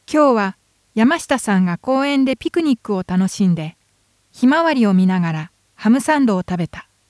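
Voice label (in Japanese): dynamic, 22050 Hz, アンバランス方式, 明らかに電気的ノイズが大きすぎる; ダイナミックマイク Sony F-V620; オーディオ・インターフェイス Roland UA-11-MK2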